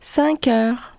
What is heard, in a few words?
french 9 hour; samsung YP-U5; Audio User Interface